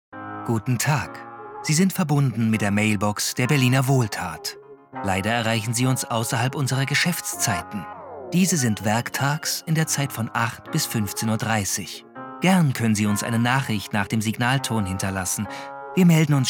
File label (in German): Telefonansage; LOFT VOICES